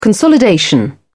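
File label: "consolidation", English, British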